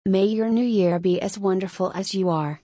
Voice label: "May your New Year be as wonderful as you are", English